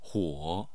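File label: "huo", Mandarin Chinese, tone 3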